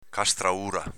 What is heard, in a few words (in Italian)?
"castraura", dialetto veneto